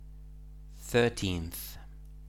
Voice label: "thirteenth", English

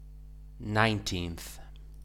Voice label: "nineteenth", English

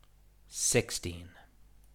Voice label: "sixteen", English

